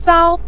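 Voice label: "zao", Mandarin Chinese syllable, neutral tone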